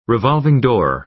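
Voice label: "revolving door", American English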